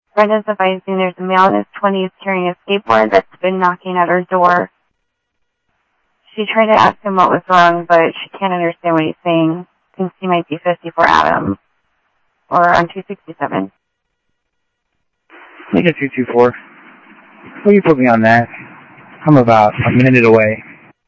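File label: Sheriff West County, scanner audio trunk; ThompsonPeakScot; MCSO - WEST; Sheriff-WestCnty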